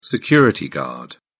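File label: "security guard", British English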